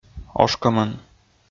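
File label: "ошкӧмӧн", Komi-Zyrian, male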